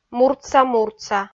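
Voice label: "муртса-муртса", Komi, female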